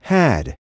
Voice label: "had", spanish